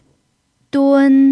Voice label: "dun1", Chinese pinyin

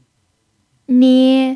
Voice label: "nie1", chinese pinyin

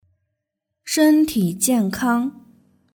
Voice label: "shentijiankang", Chinese; nygreeting

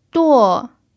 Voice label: "duo4", chinese pinyin

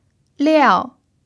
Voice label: "liao4", chinese pinyin

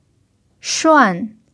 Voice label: "shuan4", Chinese pinyin

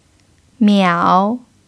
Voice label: "miao3", chinese pinyin

